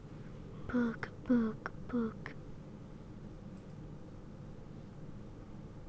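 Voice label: "book", spoken word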